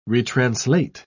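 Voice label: "retranslate", British English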